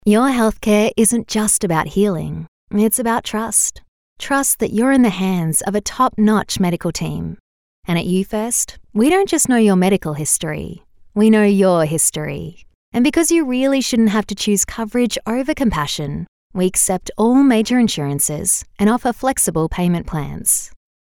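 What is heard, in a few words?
Australian English Sincere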